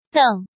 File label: "Deng" 3